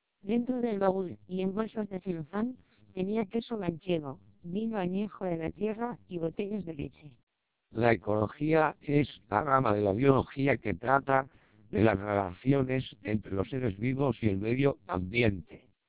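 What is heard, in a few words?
Spanish speech, TWELP 480 bps vocoder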